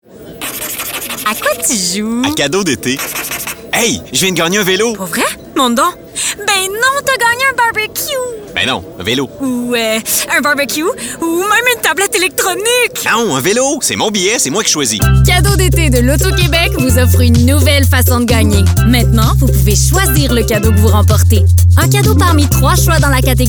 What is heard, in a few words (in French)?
pub personnage humour fr qc naturel amical avec sourire adulte; loto qc